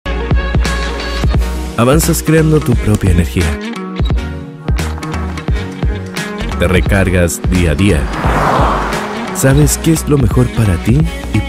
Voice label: spanish latin american automotive